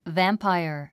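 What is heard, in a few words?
"vampire", English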